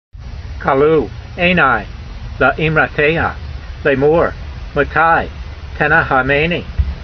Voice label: voice, hebrew language; Psalm 119:82; kaloo aynai le'eemrateyha, laymor matai tena'ha'maynee